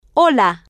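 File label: "hola", Spanish, female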